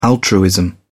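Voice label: "altruism", British English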